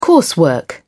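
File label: "coursework", British English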